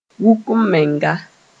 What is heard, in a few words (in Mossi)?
"wuk-m-menga", moore; boastfulness